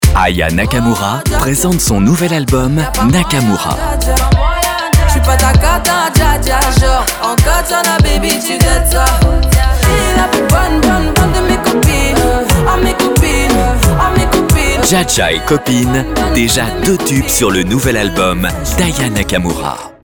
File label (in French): enthousiaste, Voix off disque